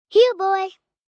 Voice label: Here Boy, Calls, 1 girl, 5 yrs.; Talking Sound Effects

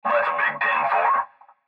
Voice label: Cb Trucker Radio; Radio Voice; Industry; Blastwave

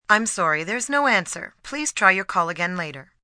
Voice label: No Answer, Telephone operator, female, recorded

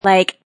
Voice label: Woman Says Like; Talking

